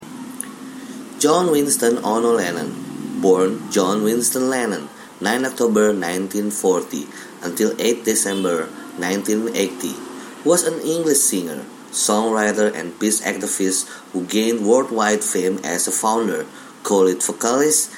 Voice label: formal, voice over

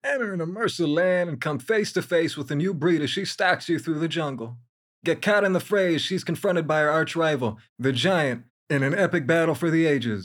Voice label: CASUAL ENGLISH

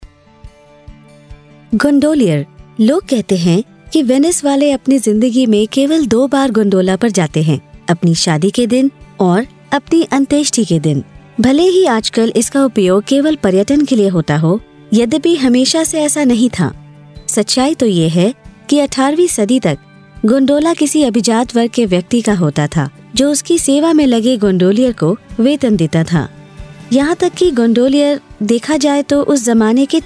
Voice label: HINDI FORMAL

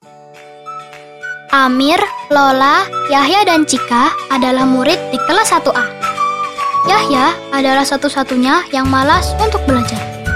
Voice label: Indonesia story-telling

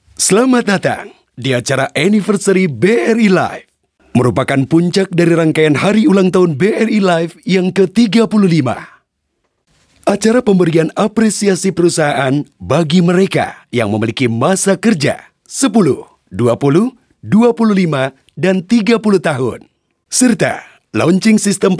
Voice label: INDONESIA FORMAL

